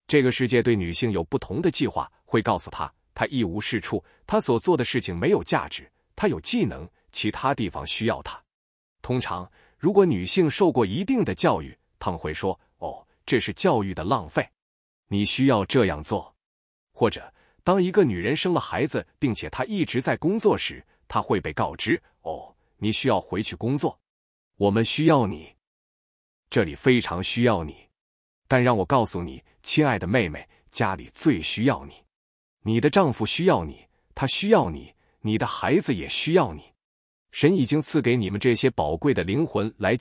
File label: chinese zh-CN; YunjianNeural